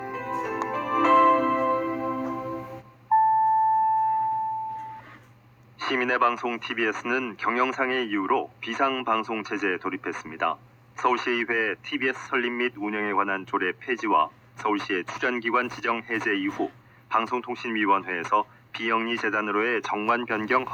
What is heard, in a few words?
TBS announce